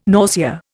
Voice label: "nausea", Indian

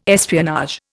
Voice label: "espionage", Indian

